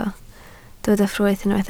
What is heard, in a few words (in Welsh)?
"ffrwyth", Welsh